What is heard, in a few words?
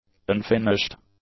"unfinished", english; adjectives